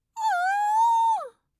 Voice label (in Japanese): "nnn", voice, reaction